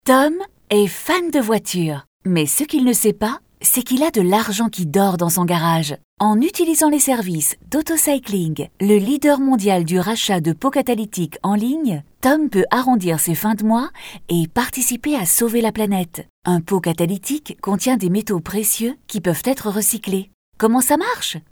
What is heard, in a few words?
corporate; autocycling